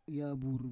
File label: "yavur", Roon